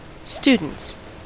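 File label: "students", US English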